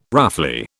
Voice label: "roughly", British